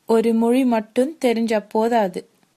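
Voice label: one language, Tamil, female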